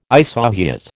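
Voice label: "isohyet", American English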